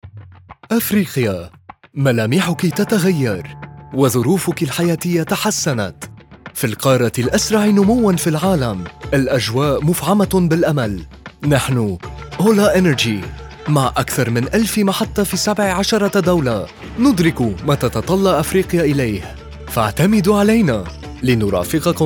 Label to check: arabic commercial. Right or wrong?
Right